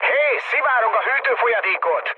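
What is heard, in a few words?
Hungarian damaged water mood med, speech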